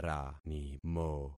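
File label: "ranimo", concatenated syllables; Affixing experiment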